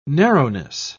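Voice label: "narrowness", British English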